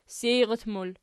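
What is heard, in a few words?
seirat plural, Archi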